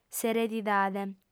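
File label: "s'eredidade", female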